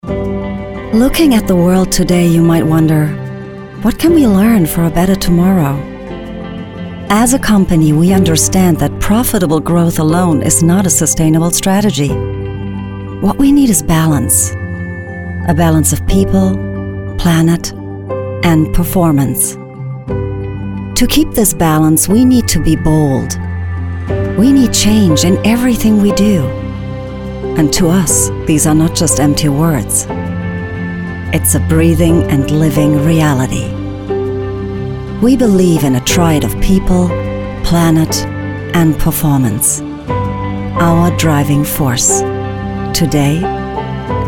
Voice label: Corporate ENGL